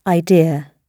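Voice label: "idea" spoken, British English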